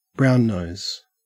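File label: "brownnose", Australian English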